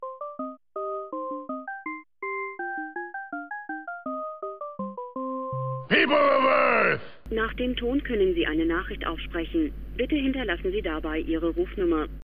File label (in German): anrufbeantworter